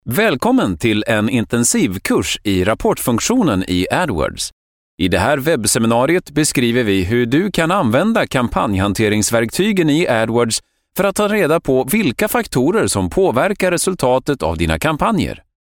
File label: se elearning